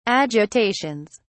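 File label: "agitations", British English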